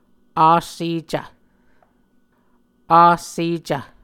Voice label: "assecv", muscogee